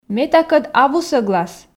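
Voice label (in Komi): ме такӧд абу сӧглас, Komi, female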